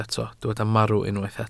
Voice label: "marw", welsh

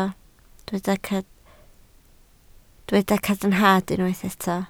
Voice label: "cadarnhad", Welsh

